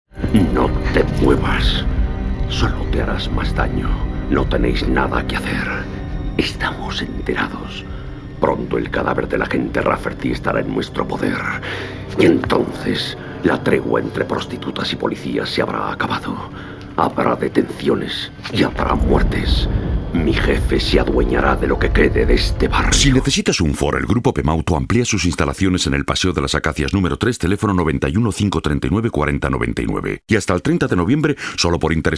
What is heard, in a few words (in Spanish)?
Masculino